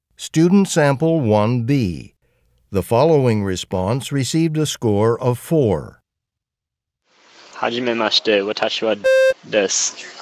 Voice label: japanese convo

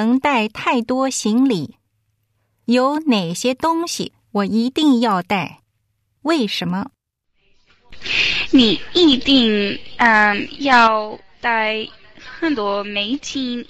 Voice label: chinese conversation

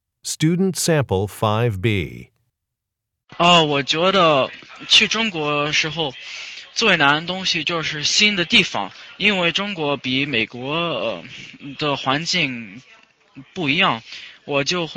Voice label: chinese conversation

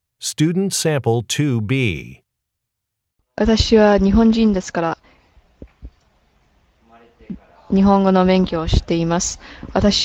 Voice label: japanese conversation

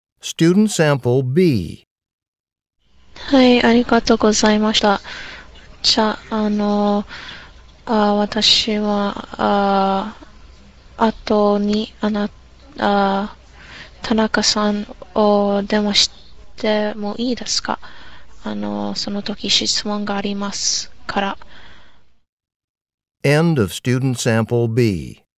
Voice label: japanese conversation

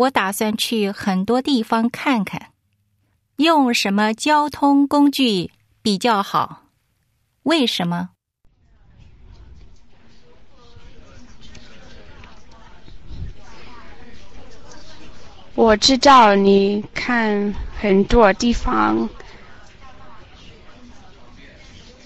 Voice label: chinese conversation

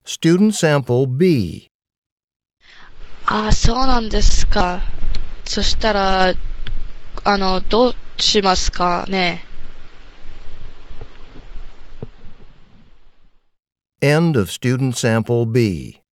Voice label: japanese return telephone call

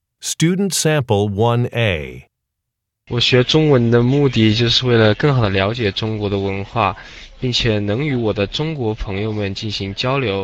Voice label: chinese conversation

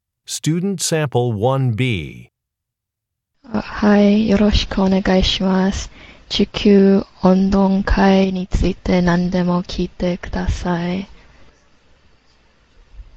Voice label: japanese conversation

